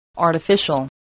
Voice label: "artificial", English